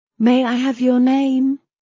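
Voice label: May I have your name, British English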